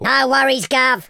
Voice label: "orders", London Cabbie; speech